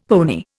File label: "pony", Indian; Dictionary